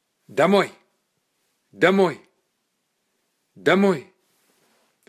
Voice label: Domoj, russian dog commands